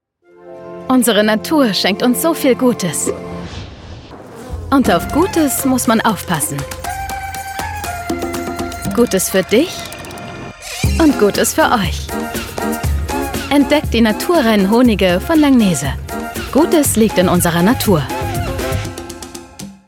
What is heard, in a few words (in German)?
Honig TV Spot; Langnese